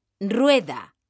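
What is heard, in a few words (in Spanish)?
"rueda", female; mekanta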